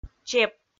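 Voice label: "чеп", Komi, female; kpv audio dict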